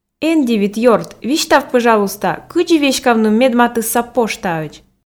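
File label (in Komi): эн дивит ёрт висьтав пӧжалуйста кыдзи веськавны медматысса поштаӧдз, Komi, female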